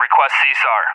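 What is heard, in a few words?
"Request CSAR", English, USA; DCS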